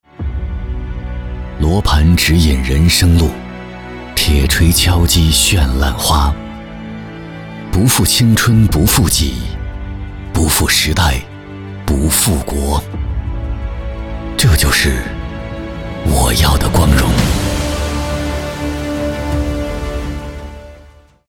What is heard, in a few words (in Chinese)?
党政公益广告